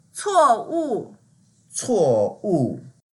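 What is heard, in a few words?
"cuo4wu4", Mandarin Chinese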